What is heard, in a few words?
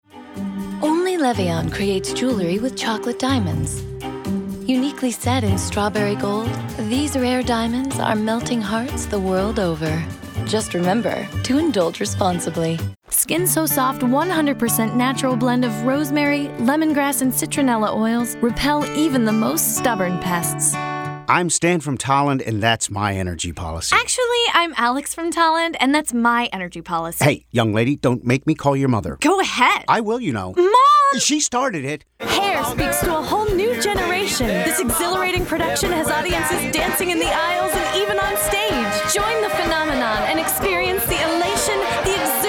commercial, commercial women